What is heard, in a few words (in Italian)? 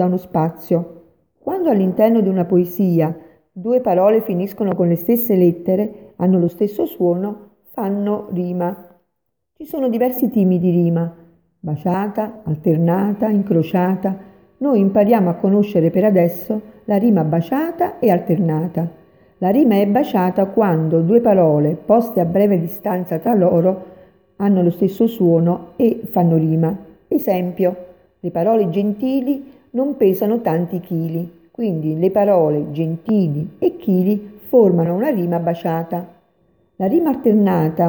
Vocale sul testo poetico